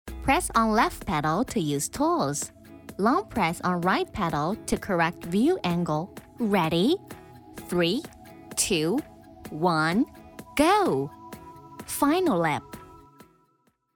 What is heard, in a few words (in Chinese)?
女 英-产品提示语 精灵-机器人